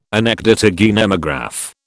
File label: "Anecdotage anemograph", British